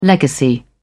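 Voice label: "legacy", British English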